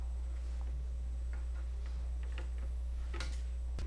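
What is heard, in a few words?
"hold", spoken word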